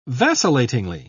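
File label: "vacillatingly", British English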